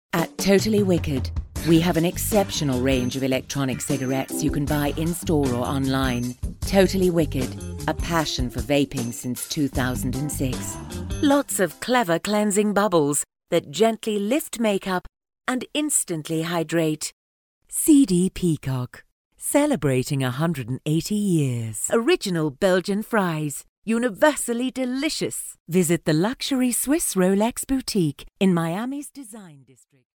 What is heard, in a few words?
female british voice over sales; english british